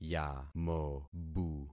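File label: "yamobu", concatenated syllables; Affixing experiment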